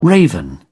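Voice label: "raven", British English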